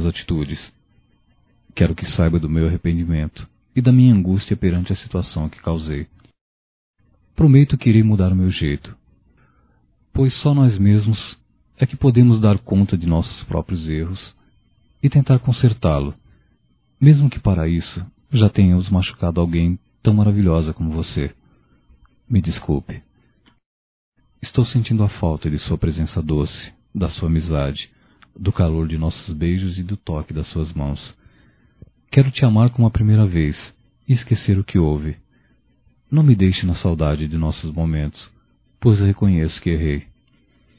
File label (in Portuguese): Desculpas Romantica Masculino, Só Voz; Telemensagens; Telemensagens 2017